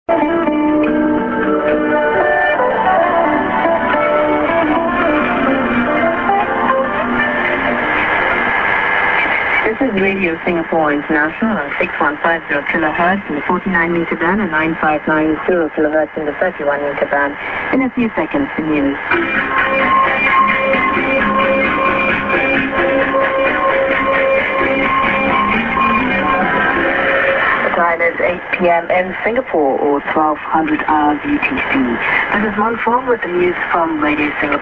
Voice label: Eng. Mid. ->ID+SKJ(women)->music->ID(women)->